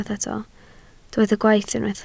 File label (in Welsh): "gwaith", Welsh